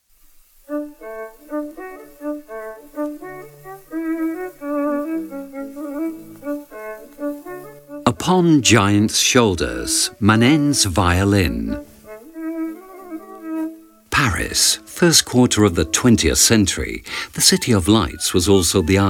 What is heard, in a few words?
audioguia english; delamusica